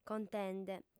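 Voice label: "contende", female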